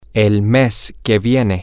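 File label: next month, Spanish, male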